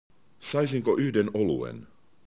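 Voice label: "olut", Finnish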